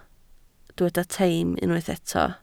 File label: "teim", welsh